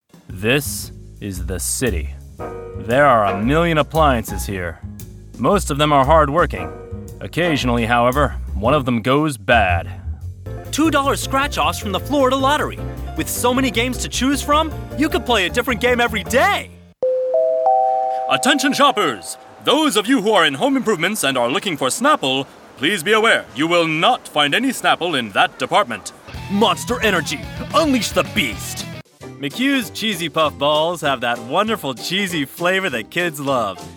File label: Character Commercial VO